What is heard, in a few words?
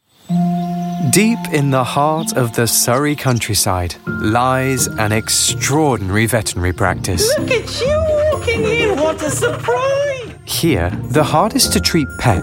narration